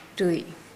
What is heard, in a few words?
Nukuoro